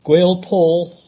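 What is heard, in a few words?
"Gwealpaul" English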